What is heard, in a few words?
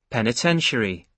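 "penitentiary", British English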